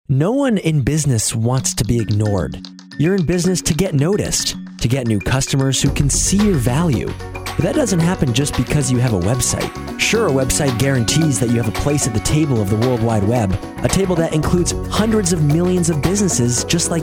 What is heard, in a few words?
Radio Ad; New Year; Montague WebWorks